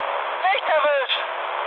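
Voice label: German bomb failure mood high, speech; War Thunder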